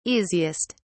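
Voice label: "easiest", British English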